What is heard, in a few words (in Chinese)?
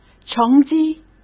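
"成绩", Mandarin Chinese; chinese flashcards; hsk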